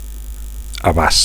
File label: "abast", Catalan; (m) reach; (m) extent